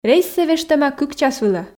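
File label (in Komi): рейссӧ вештӧма кык час вылӧ, Komi, female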